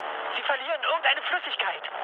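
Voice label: German tank damaged mood med, speech; War Thunder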